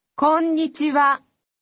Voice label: japanese hello